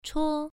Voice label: "chuo1", pronunciation